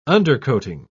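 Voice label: "undercoating", British English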